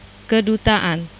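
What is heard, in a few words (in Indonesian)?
"kedutaan", Indonesian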